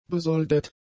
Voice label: "besoldet", german; adjectives

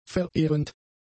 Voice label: "verehrend", German; adjectives

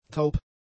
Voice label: "taub", German; adjectives

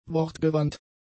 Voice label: "wortgewandt", German; adjectives

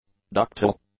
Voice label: "ductile", English; adjectives

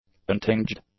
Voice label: "untinged", english; adjectives

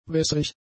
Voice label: "wässrig", German; adjectives

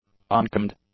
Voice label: "uncombed", English; adjectives

